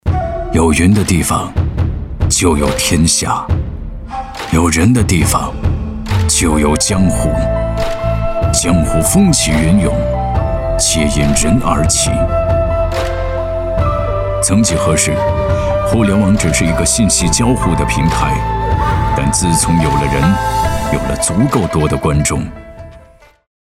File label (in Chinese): 男 特色配音 江湖风